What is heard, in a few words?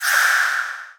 Zombie